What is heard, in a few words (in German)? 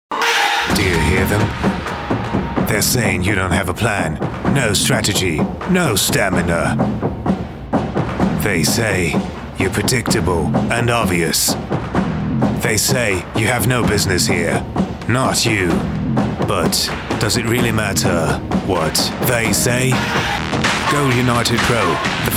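British English, Cool; Fremdtake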